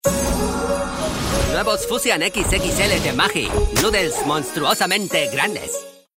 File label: male spanish food comercial